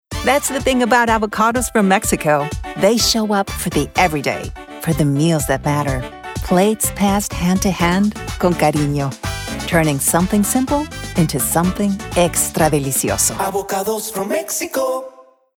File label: Avacados spot, english commercial voice over